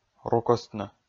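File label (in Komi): "рукӧстны", Komi, male; kpv audio dict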